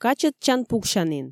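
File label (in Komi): "качӧдчан-пуксянін", Komi, female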